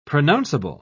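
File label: "pronounceable", British English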